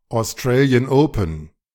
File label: "Australian Open", De